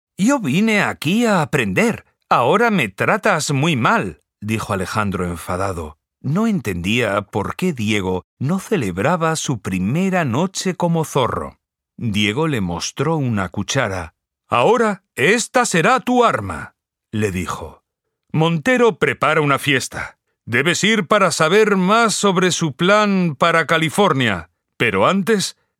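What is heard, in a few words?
Spanish children's book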